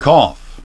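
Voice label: "cough", English pronunciation; kɒf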